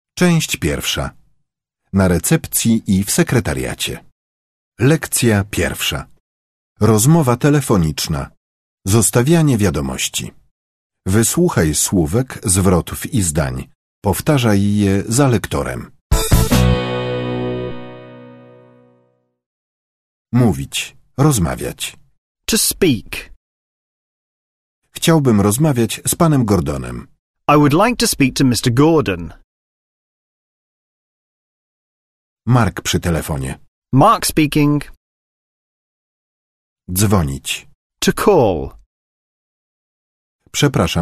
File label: Angielski W pracy Office English